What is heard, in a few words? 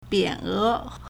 "bian3e2", Chinese; 匾额; a horizontal inscribed board; 匾额 (匾額) biǎn é